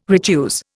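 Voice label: "retuse", Indian English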